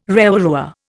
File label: "rewarewa", Indian